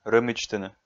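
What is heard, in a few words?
"рӧмидзтыны", Komi, male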